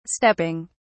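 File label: "stepping", British English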